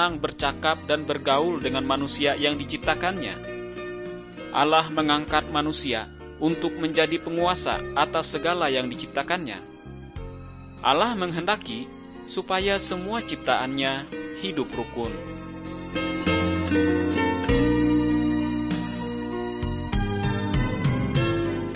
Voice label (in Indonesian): kabar baik good news bahasa indonesia